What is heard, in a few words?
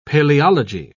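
"paleology", British English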